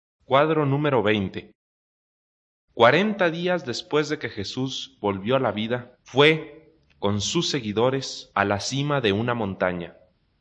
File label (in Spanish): good news spanish mexico